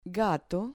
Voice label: "gato" Spanish